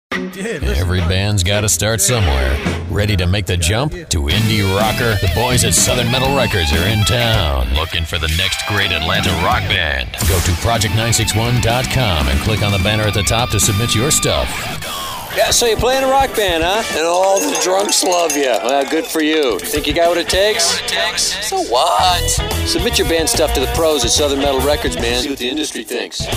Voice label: Imaging Male; commercial voiceover